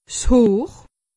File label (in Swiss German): "hoor", alsace; medecine